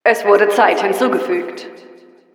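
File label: Announcer time added, German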